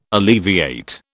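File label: "alleviate", British English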